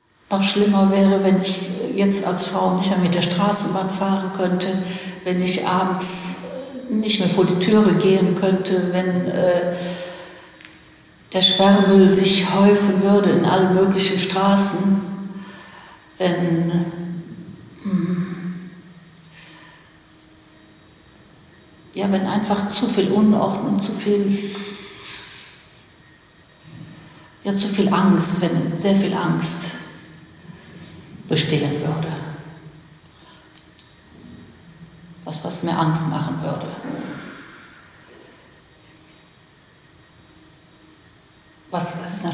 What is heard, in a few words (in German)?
rheinlaendisch